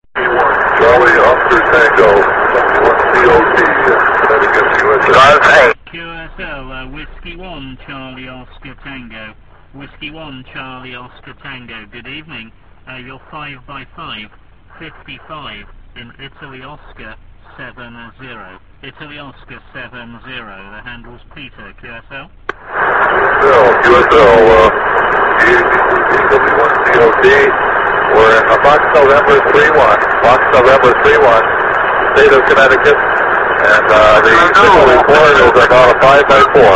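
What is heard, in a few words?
amateur radio contact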